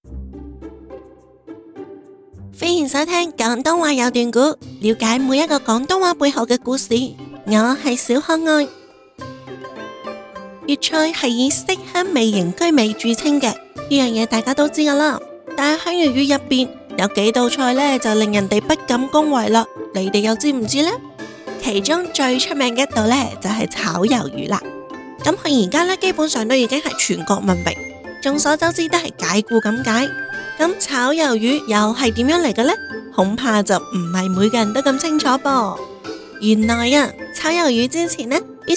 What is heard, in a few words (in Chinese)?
广东话; 广东话有段古炒鱿鱼跟解雇有什么关系